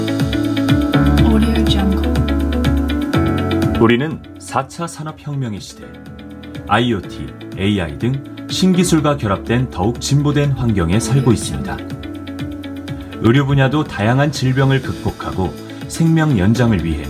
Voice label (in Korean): 광고; 프로케어노트